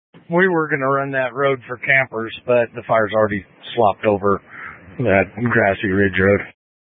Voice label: Incident Tac, scanner